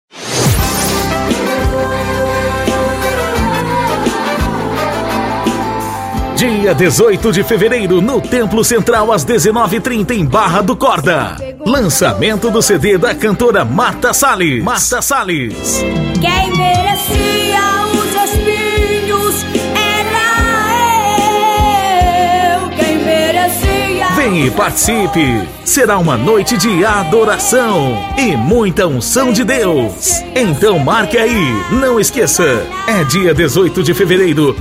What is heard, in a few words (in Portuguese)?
comercial